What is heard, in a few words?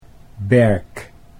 "berk", Dutch